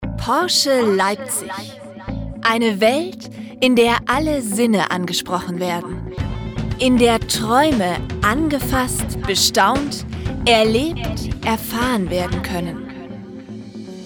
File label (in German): Imagefilm